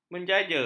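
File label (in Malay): "menjaja", Malay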